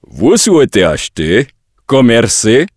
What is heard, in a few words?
draenei, male; acheter commercer; PhoenixCraft 1.16.5; PhoenixNPCPack; minecraft